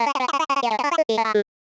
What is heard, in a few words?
small clear jolly; voxel audio preview